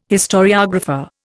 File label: "historiographer", Indian